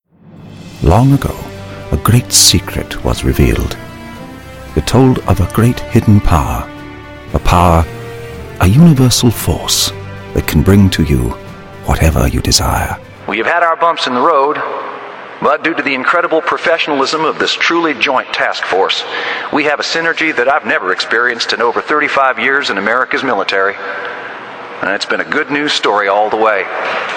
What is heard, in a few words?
Accents